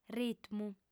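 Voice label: "ritmu", female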